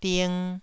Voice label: "ding2", taiwanese syllable